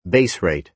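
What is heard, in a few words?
"base rate", American English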